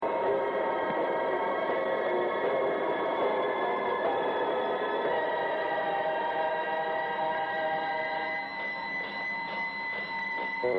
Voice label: radio cork closedown; cbc